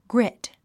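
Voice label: "grit", English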